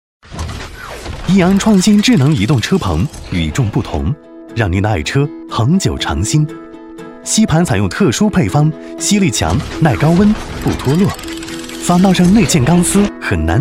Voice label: 男 专题 随性科技; 科技车篷

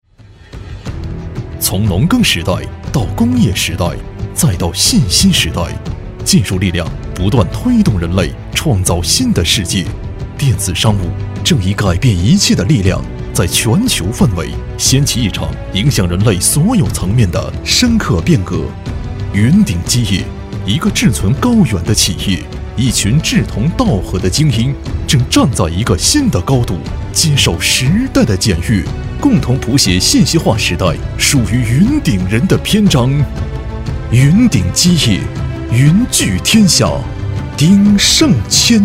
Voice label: 男 专题 宣传片; 云鼎集团宣传片

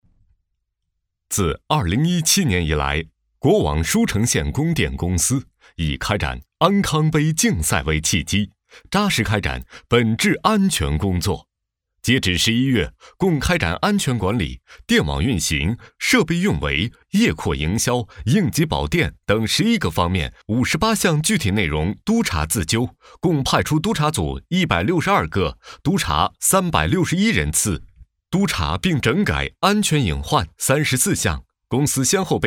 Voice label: 男 专题; 安康杯专题

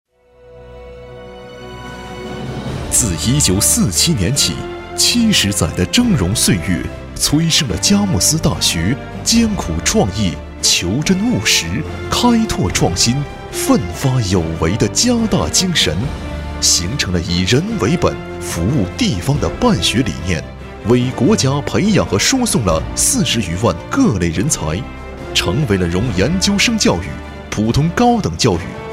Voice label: 男 专题 宣传片; 佳木斯大学; 佳木斯大学宣传片

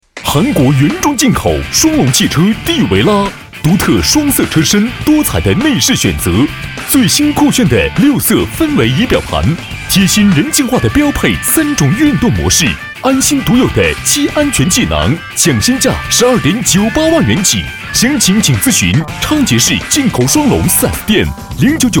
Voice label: 男 广告 汽车

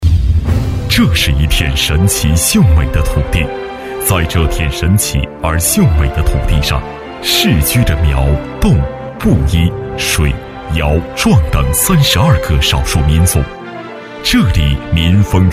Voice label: 男 专题; 黔东南专题

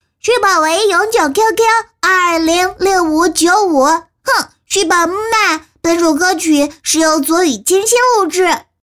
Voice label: 女 动漫 男童2到3岁

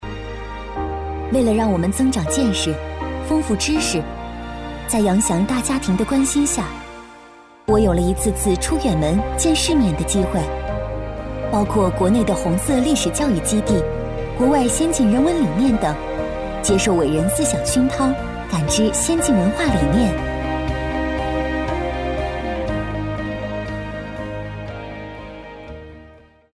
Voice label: 女 旁白 大家庭

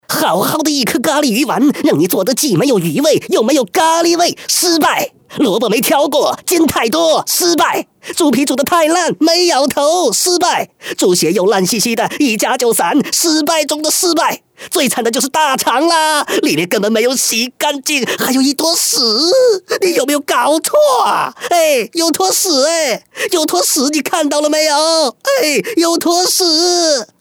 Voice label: 男 模仿

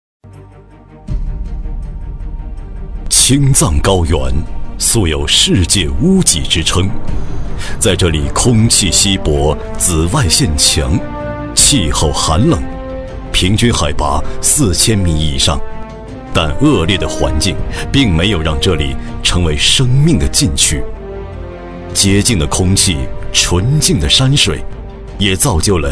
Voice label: 男 专题 带干音; 藏系羚羊

